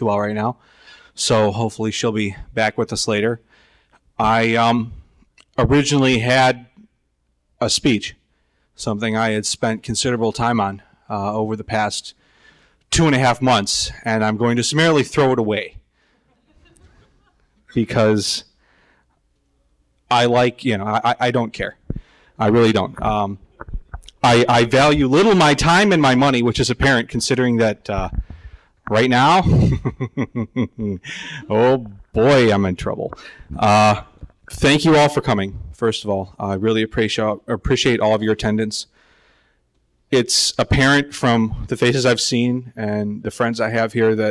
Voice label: keynote; notacon 2005